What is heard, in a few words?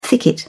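"thicket", British English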